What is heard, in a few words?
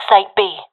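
professional female; Source Model Packs; Counter Strike Model Pack; CS2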